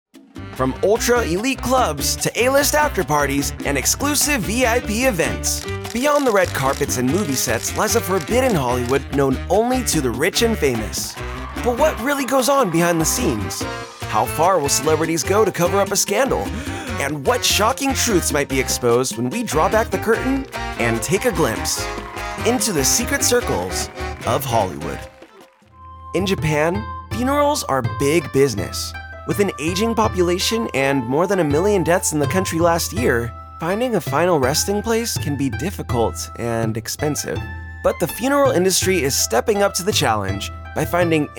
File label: Documentary